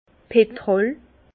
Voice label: "petrol", Bas Rhin; vin; goudron

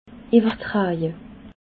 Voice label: "iwwertraje", alsace

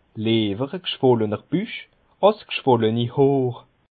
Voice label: "gschwollener", Bas Rhin; il vaut mieux avoir un gros ventre que mal aux cheveux

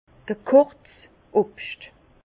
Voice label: "gekochts", Bas Rhin; fruits cuits; vin

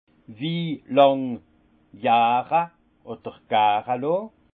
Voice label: "jahrelon", alsace; vin; cuvaison durée

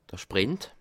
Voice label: "sprint", alsace

